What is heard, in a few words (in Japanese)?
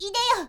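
"ideyo", Japanese; magi syozyo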